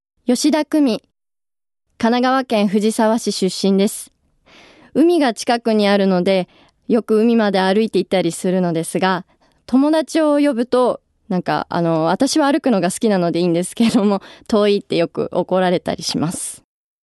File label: freetalk, female voice